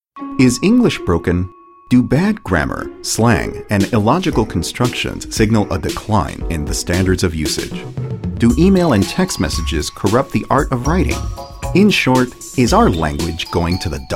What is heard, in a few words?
narration; myths of language usage